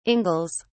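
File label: "ingles", British English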